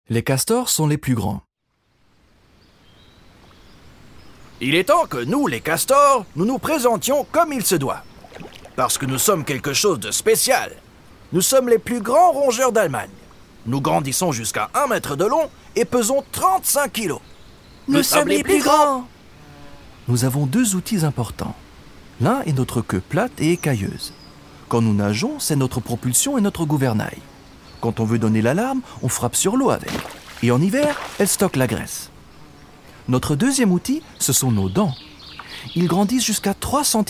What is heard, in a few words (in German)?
Franzoesisch Kinder Audioguide